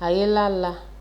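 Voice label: "ayelala", Nigerian Pidgin, female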